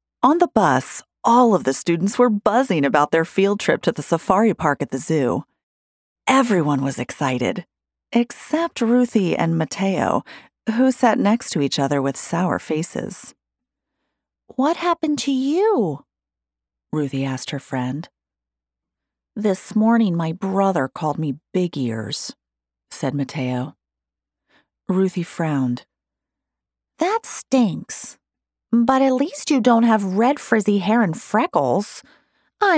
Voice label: Audiobook Children